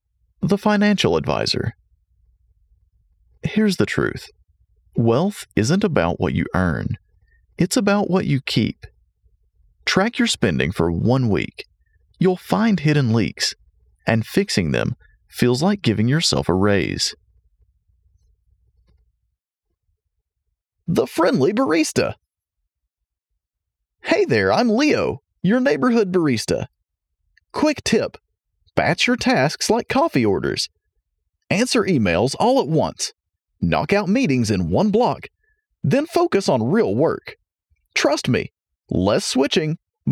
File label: Voiceover Animated Explainer